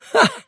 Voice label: happy; davion; dota; dragon knight